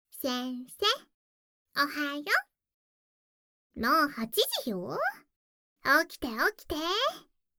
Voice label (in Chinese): 系統語音 早上8點報時; 莉莉亞